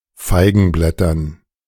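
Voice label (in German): "Feigenblättern", German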